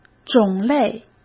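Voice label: "种类", Mandarin Chinese; chinese flashcards; hsk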